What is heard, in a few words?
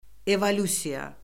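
"evolyusiya", Azerbaijani; Orfoqrafiya Lüğəti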